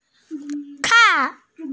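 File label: "kan4", Mandarin Chinese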